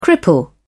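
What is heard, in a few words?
"cripple", British English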